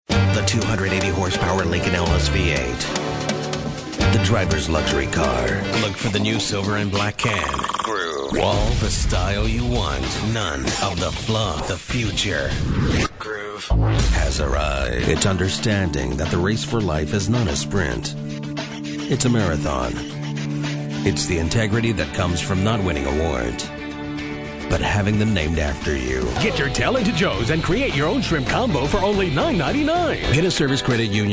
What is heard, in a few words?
American Male